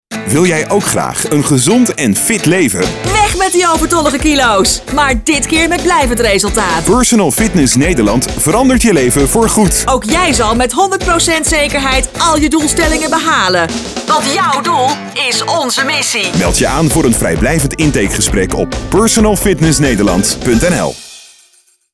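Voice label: comercial, Dutch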